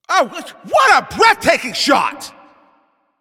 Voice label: Announcer Especially Good Shot